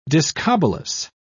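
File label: "discobolus", English, British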